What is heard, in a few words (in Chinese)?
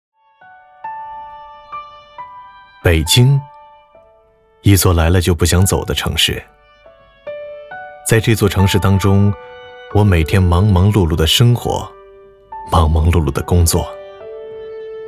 男 旁白; 微电源